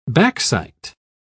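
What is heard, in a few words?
"backsight", British English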